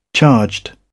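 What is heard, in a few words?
"charged", British English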